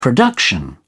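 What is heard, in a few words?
"production", English, British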